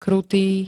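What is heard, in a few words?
"krutý", Slovak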